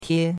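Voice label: "tie1", Mandarin Chinese; Cours-de-Chinois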